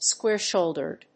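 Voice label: "square-shouldered", English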